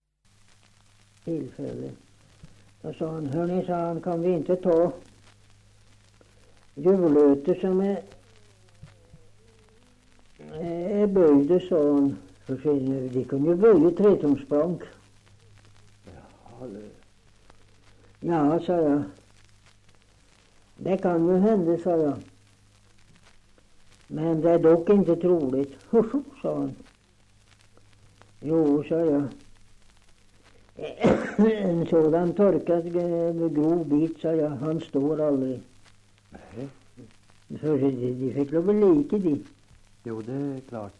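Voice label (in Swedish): Oppeby Åtvid Östergötland, svenska sagor dialekter; Folkminnen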